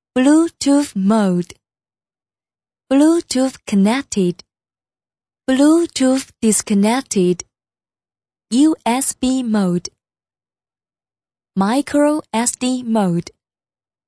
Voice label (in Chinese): 女声【英文】智能语音